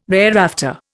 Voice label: "thereafter", Indian